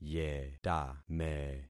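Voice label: "yetame", concatenated syllables; Affixing experiment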